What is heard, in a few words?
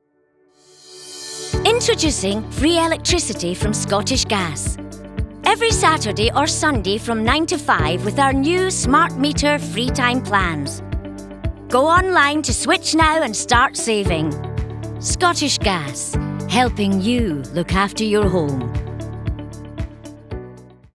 Commercial; Scottish Gas